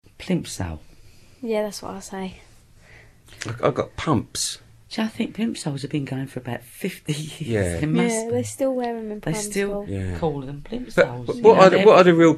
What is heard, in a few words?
cockney; plimsoles; speech; dialects